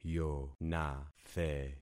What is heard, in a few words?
"yonathe", concat syllables; Affixing experiment